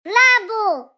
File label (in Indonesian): Suara Ceria Anak "Laabu!"